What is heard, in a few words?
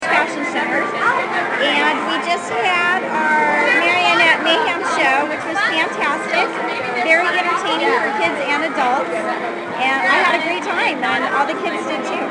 Testimonial; Mall Manager